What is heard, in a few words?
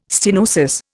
"stenosis", Indian